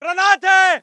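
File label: voice german infantry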